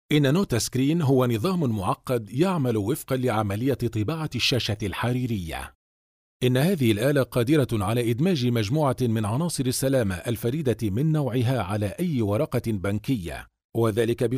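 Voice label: Arabic, Corporate; GoLocalise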